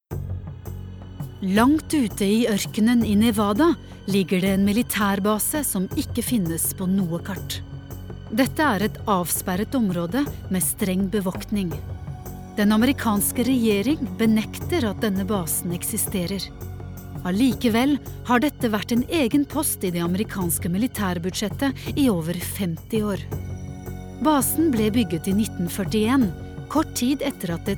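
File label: Norwegian documentary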